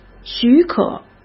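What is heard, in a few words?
"许可", Mandarin Chinese; chinese-flashcards; hsk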